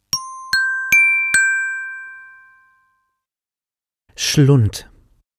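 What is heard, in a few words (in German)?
"Schlund", German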